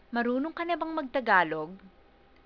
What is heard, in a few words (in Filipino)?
marunong ka na bang magtagalog, Tagalog